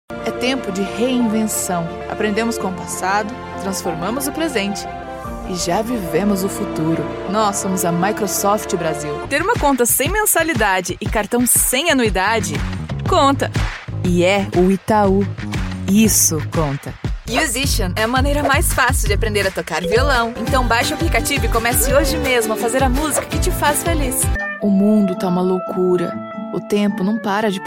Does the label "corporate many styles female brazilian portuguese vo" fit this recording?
Yes